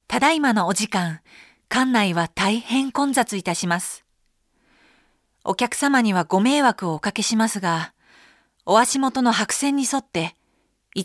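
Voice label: Voice Sample Narration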